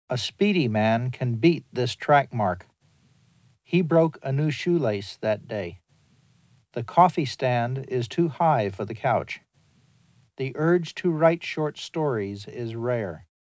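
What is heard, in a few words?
English, male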